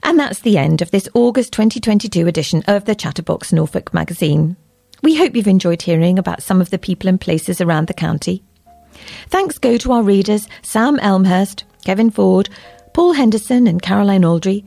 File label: Closing announcement